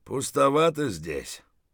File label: mutter, voice; Escape from Tarkov